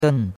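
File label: "den4", Chinese; Cours-de-Chinois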